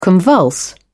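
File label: "convulse", British English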